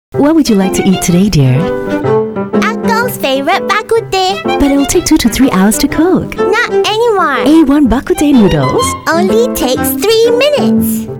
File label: Asian English mum child; A1 Food